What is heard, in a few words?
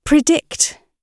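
"predict", British English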